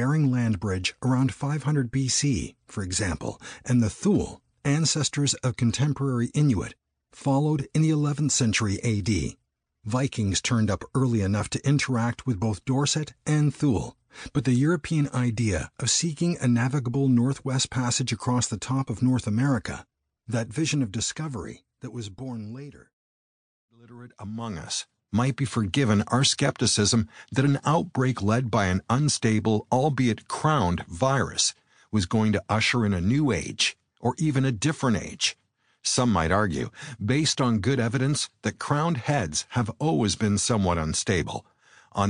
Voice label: english canadian audiobooks, canadian english voice actors